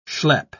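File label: "schlepp", British English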